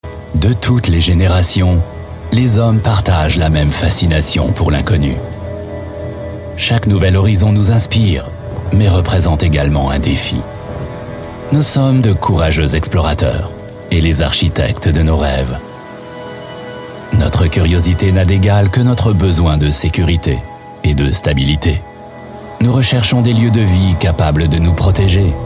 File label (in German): Imagefilm